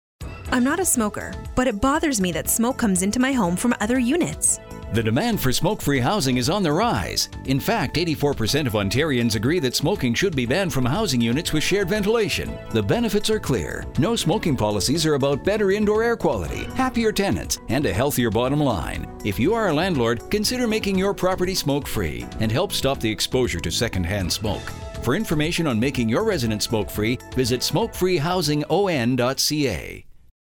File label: radio ad